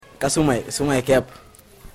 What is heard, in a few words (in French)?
Joola; les langues au senegal